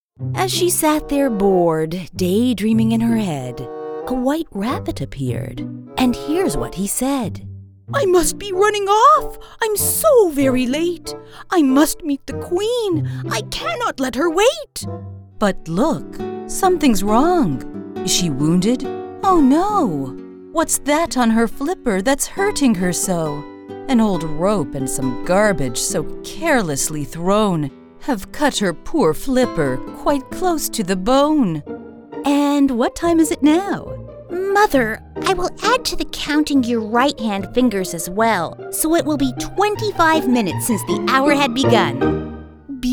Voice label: Storytelling